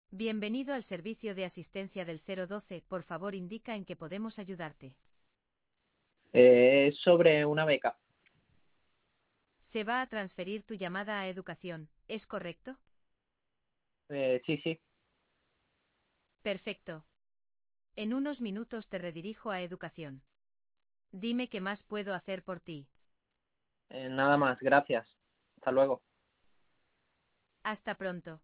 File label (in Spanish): Grabacion Ejemplo; Sandetel